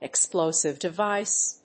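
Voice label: "explosive device", English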